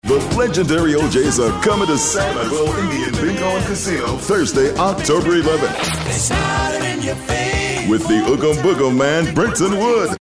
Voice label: high energy